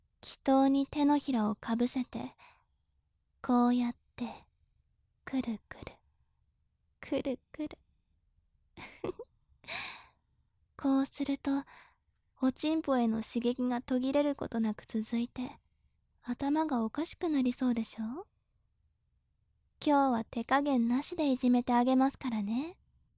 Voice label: 調教中音声

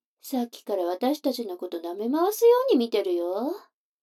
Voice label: 女の子 台詞, ASMR

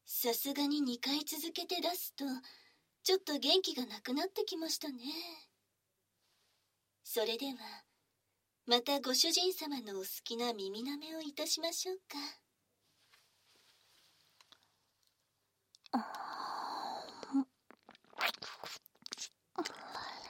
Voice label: 淫語囁き耳舐め, ASMR

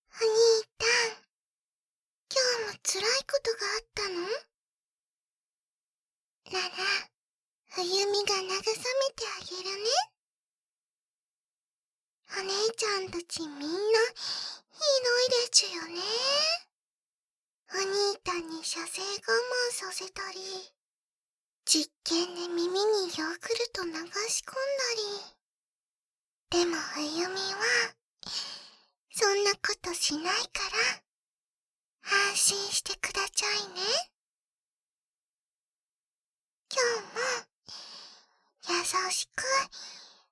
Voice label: 赤ちゃん言葉で耳舐め; 四女が赤ちゃん言葉で耳舐めしてくれるオナサポボイス

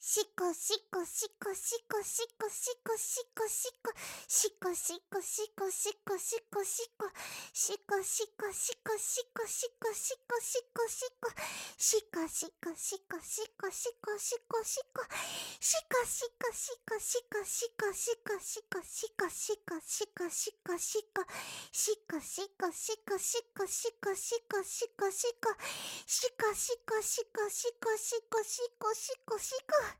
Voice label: しこしこボイス; 聞き流し用

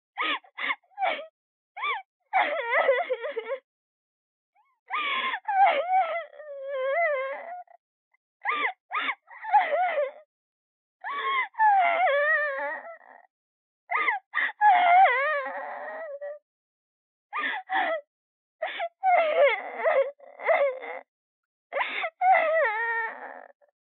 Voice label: 彼女の電話台詞 loop

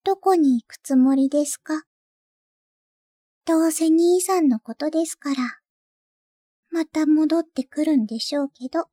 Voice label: シャットアウト, システムボイス; 健気な許嫁のほのあま耳かき; ドット＊ハーフ; マイペースな妹システムボイス

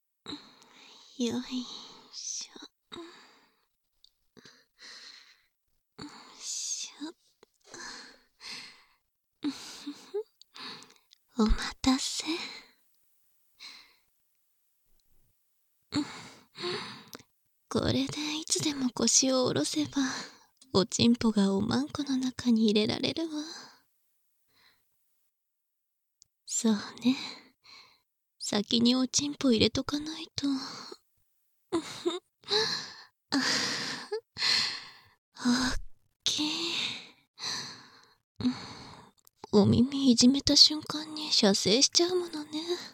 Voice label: 囁き本番, バイノーラルマイク収録; 効果音あり大きめ; 効果音大差分

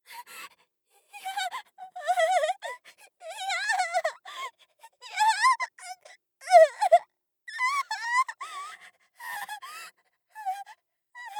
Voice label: 追い詰めた, ASMR; 効果音なし

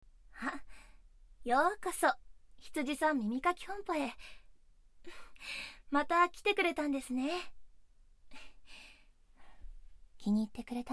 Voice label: ささやき, 耳かき; ひつじさん耳かき本舗 参; 「ひつじさん耳かき本舗」での再会。

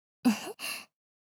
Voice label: 「てへ」 お姉さん 中音域

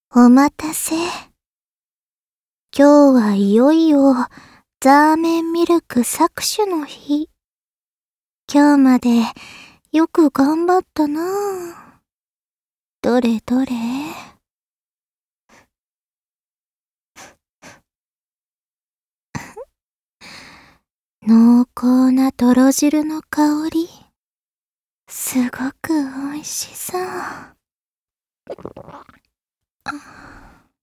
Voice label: 囁き; 囁き手コキ搾取（右耳）; お姉さんサキュバスのザーメンミルクレシピ

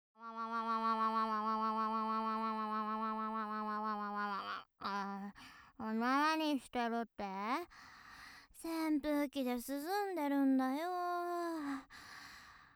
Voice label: 元気JK 夏だね

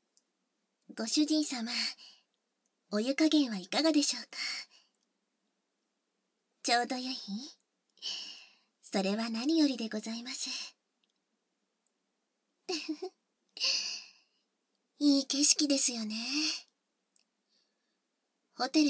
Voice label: お風呂で混浴（バイノーラルマイク収録 効果音なし）

